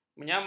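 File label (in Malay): "menyamar", Malay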